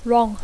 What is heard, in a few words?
"wrong", English; Dacco